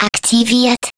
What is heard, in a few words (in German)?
Turret deploy, de